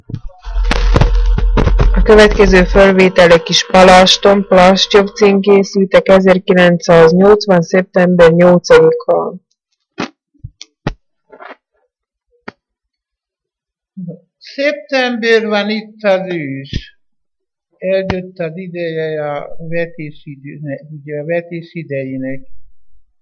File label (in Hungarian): nyelvjaras gyujtesek